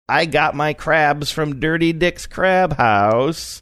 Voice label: saying i got my crabs at dirty dicks crab house funny